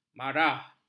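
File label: "marah", Malay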